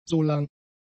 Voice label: "solang", German; conjunctions